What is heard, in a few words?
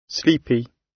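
"sleepy", British English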